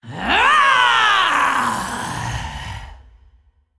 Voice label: Female Dragon Undead Boss Reveal

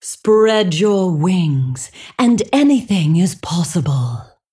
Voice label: Female Dragon Bark; Alexstrasza

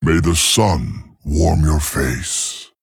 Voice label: Male Tauren Greetings

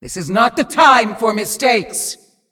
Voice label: Female Demon Oops

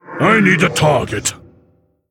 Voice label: Male Mogu Error Target

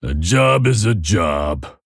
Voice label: Male Pandaren Idle

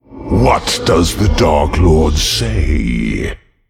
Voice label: Male Demon; Mal Ganis

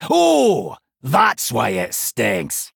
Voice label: Male Dwarf In Game Introduction; Tavish

